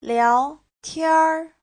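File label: "liáotiānr", Chinese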